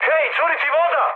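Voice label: Serbian damaged water mood high; War Thunder; speech srb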